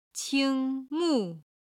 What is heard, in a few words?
"qing1 mu4", Chinese